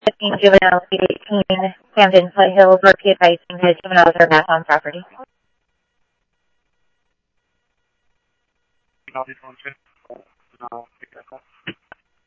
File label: Police, scanner; H-Scottsdale; ScottsdalePolice